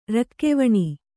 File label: "ರಕ್ಕೆವಣಿ", Kannada; rakkevaṇi; noun